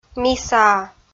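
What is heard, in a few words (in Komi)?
"мисаа", Komi, female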